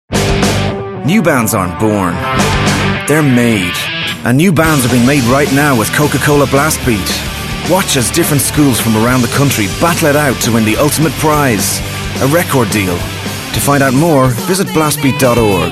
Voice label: Commercial VO